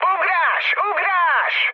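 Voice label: Hungarian bailout mood high, speech; War Thunder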